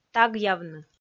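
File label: "тагъявны", Komi, female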